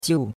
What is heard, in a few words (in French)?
"jiu4", chinois; Cours-de-Chinois